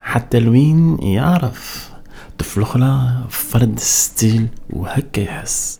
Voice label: POET, POET VOICE, Arabian Traditions

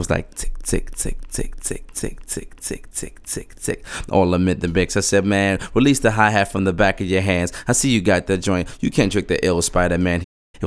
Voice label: Tick Tick Tick, Hip Hop, MCs; Sonic Foundry (Sony Creative Software); Hydroponic Hip-Hop

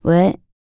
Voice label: FEM. SPOKEN; R&B Dance

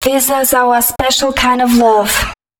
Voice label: female, spoken hooks

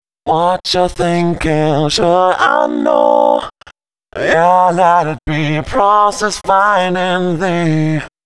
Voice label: male g#125, voice; FX; Mutekki Media; Dance Ultra Voice VOL-1

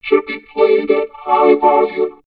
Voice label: Vocoder High Volume, robot vox; X-Static Goldmine

